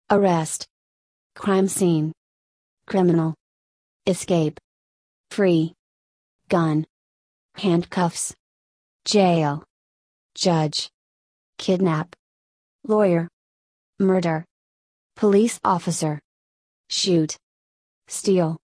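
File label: American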